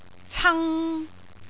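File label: "cang3", Cantonese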